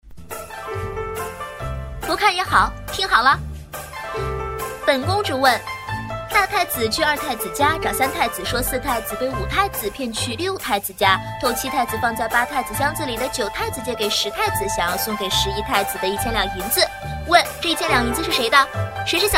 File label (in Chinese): 可爱灵动少女配音